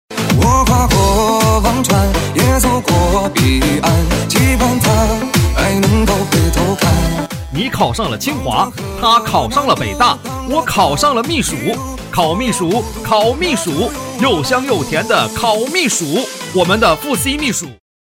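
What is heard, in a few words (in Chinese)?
男促销; 烤红薯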